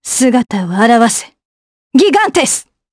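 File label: Vox Skill Japanese; Isolet; kingsraid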